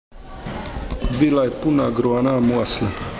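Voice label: "gruana", Croatian dialect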